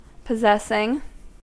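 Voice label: "possessing", US English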